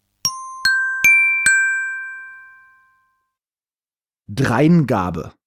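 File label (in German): "Dreingabe", Deutsch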